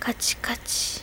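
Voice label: click click, Japanese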